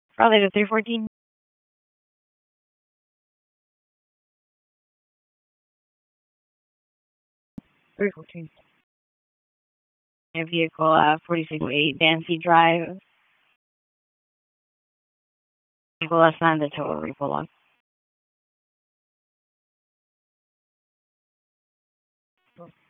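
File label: Police District Dispatch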